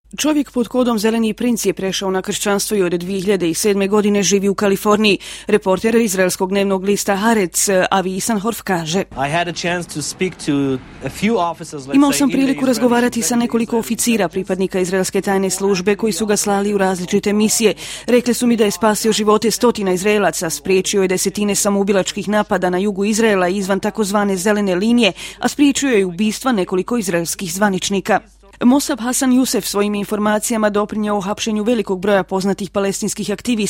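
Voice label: woman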